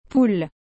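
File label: "poule", French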